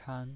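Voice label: "chan", Mandarin Chinese, first tone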